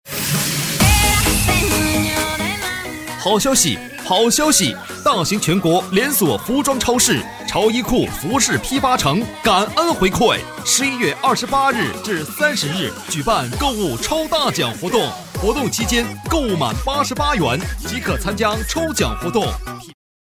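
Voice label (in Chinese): 男促销; 潮衣库服装超市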